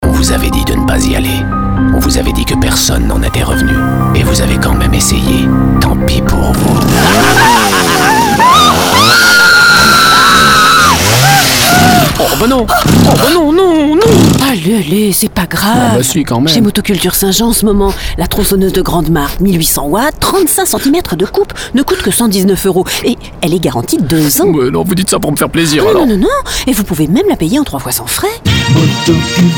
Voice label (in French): radio pub spot